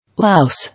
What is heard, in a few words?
"Louse", English